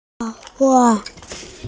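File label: "ke3le4", Mandarin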